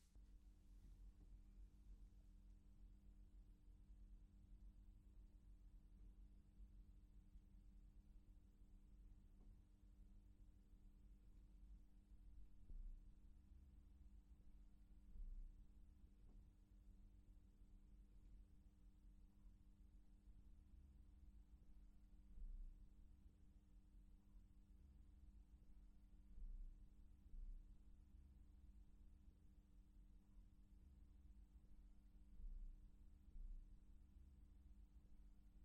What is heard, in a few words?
Home Studio